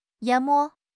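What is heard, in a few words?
"淹没", Mandarin Chinese; HSK6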